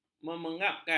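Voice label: "memengapkan", Malay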